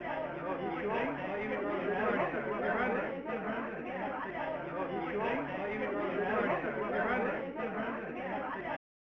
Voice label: Intro Chatter (Japanese Ver.); Super Mario All-Stars; SNES